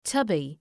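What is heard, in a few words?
"tubby", English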